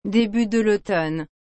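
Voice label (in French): "début de l'automne", French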